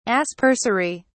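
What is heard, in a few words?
"aspersory", English, British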